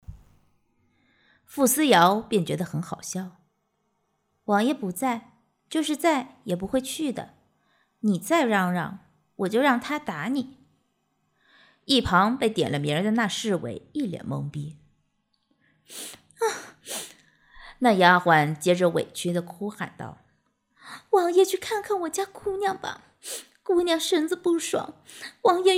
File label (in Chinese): 古代宫斗